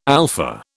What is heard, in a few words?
"alpha", British